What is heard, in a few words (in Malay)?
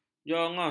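"jongos", Malay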